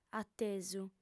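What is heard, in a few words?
"atesu", female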